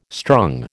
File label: "strung", American